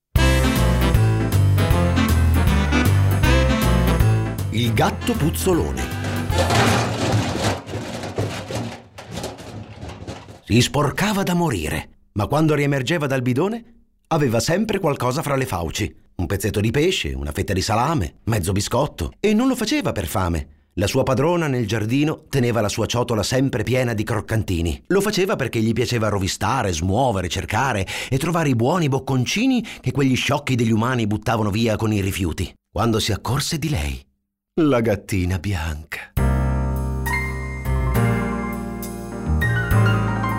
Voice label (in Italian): audiolibri